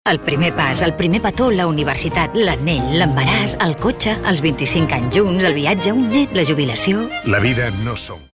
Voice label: female catalan bank comercial; ideasonora